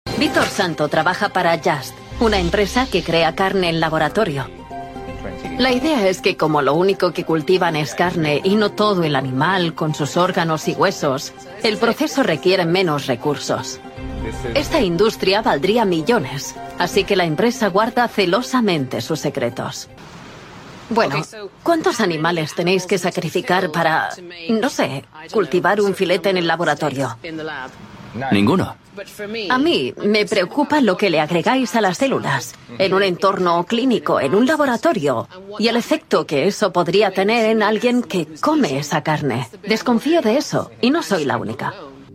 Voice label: spanish dubbing documentary